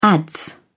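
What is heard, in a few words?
"adze", British English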